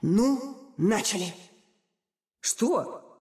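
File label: Russian; 索杰恩; 守望先锋2; 终极技能; 主动/敌方释放语音; Ну, начали. (Пауза) Что?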